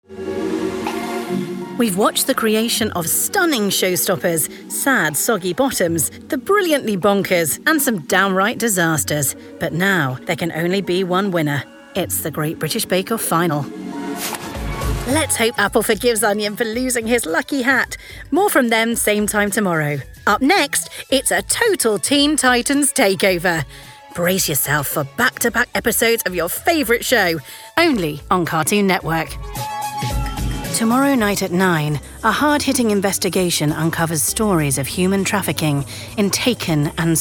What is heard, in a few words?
continuity; Continuity Reel, recorded at home, produced by SonicPond